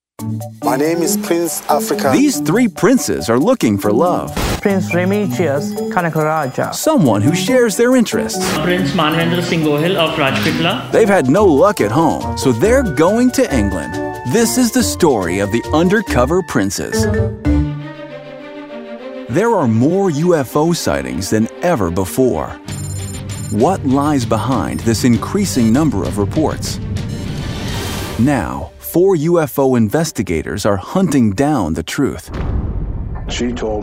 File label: Narration